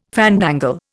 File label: "fandangle", Indian